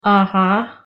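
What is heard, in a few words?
"uh huh", English pronunciation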